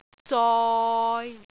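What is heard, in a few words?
"zoi6", Cantonese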